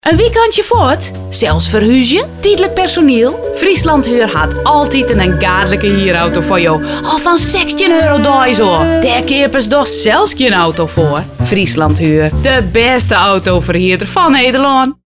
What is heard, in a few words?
Radiospot Fries; Friesland; Huur